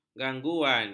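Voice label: "gangguan", Malay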